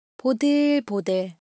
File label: Korean, Ideo Phones; Texture